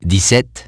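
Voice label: "seventeen", English; iOS 4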